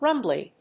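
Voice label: "rumbly", English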